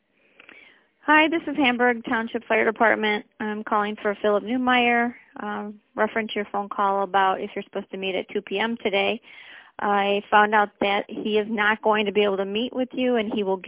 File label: Voicemail